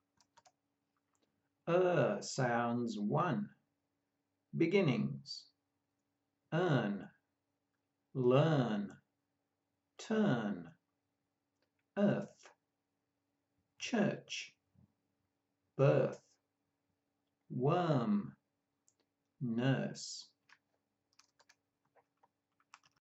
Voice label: Ur sounds, pronunciation of australian english